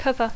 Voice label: "cover", English

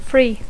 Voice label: "free", English

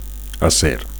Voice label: "acer", Catalan; (m) steel

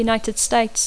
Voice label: "United States of America", English

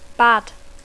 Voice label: "bad", English

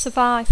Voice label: "survive", English

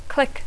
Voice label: "click", English

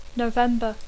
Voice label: "November", English

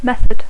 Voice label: "method", English; mètode

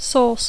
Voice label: "source", English; font

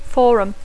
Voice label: "forum", English

